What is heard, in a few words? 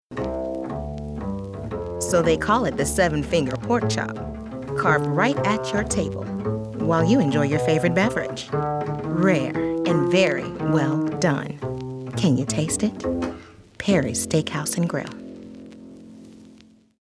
Chill